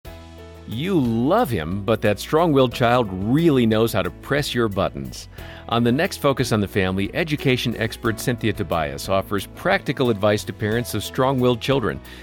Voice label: international promo